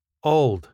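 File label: "auld", Scottish English; 年老いた／古い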